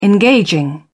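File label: "engaging", British English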